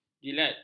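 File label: "jilat", Malay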